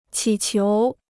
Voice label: "乞求", Chinese

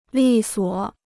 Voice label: "利索", Chinese

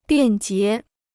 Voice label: "便捷", Chinese

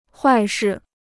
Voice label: "坏事", Chinese

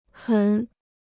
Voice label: "很", Chinese

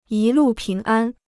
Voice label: "一路平安", Chinese

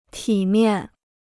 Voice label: "体面", Chinese

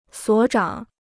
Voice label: "所长", Chinese

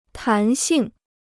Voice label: "弹性", Chinese

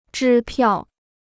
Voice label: "支票", Chinese

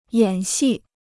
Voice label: "演戏", Chinese

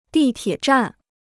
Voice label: "地铁站", Chinese

